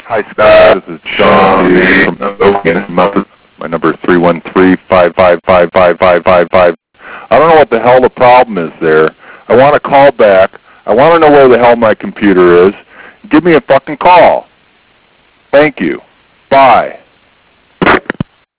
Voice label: angry customers